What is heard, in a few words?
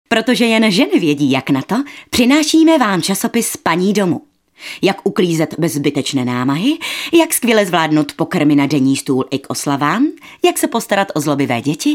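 female Czech commercial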